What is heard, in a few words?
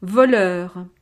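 "voleur", French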